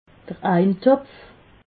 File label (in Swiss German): "eintopf", alsace